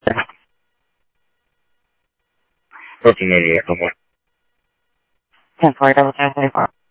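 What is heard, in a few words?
police radio dispatch, Scottsdale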